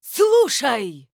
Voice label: Female Night Elf Attack; Hearthstone; датамайн обновления 5.0